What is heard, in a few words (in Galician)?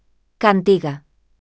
voz sintética